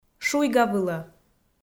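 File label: "шуйгавылӧ", Komi, female; kpv audio dict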